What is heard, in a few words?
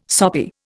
"soppy", Indian